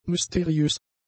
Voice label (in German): "mysteriös", German; adjectives